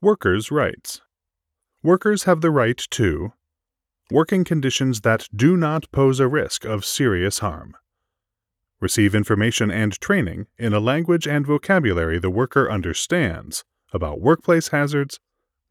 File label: OSHA eLearning